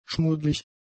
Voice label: "schmuddlig", German; adjectives